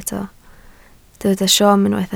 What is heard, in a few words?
"siom", Welsh